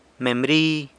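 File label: "membri", quince, San Lucas Quiaviní Zapotec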